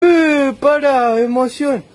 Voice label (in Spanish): ehhh para emocion